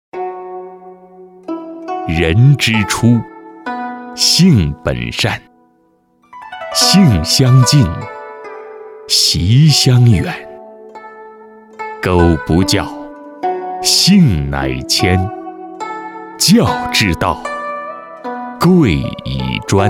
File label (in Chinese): 多媒体, 有声读物, 三字经